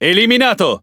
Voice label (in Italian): Speech Pilots Fighter Destroy, IT; World Of Warships